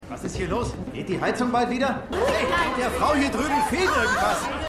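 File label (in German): Bärtiger Mann; New Amsterdam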